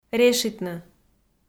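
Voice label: "решитны", Komi, female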